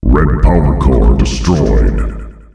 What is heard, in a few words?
Red Powercore destroyed, announcer male; Unreal Tournament; ut2004